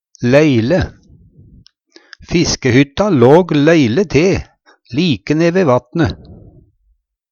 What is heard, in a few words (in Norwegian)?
leile, Numedalsmål; lagleg, høveleg; Fiskehytta låg leile te like ne've vatne.; Adverb